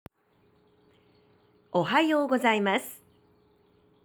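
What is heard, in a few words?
"ohayou gozaimasu", Japanese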